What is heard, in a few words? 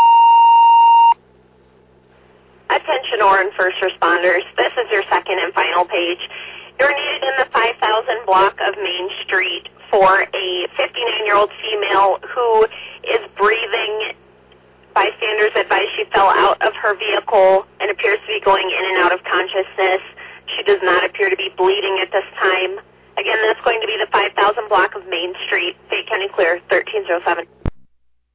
Oran Fire, dispatch